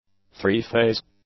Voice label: "three-phase", English; adjectives

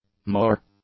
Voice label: "mower", English; nouns

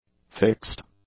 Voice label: "fixed", english; adjectives

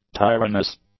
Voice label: "tyrannous", English; adjectives

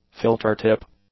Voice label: "filter-tip", English; nouns

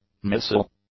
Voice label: "missile", English; nouns

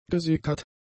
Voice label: "gesickert", German; adjectives

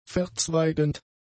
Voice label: "verzweigend", German; adjectives

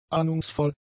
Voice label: "ahnungsvoll", german; adjectives

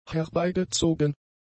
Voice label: "herbeigezogen", German; adjectives

